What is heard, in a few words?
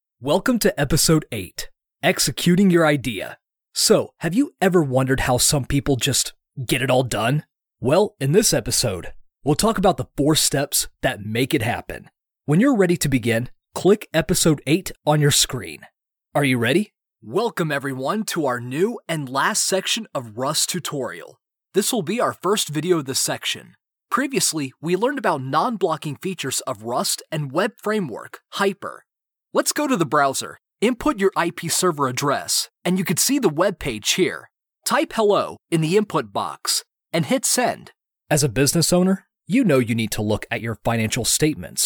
E-learning